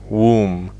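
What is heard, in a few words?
"womb", English; fonetica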